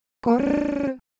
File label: Semai, ideophones